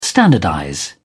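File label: "standardize", British English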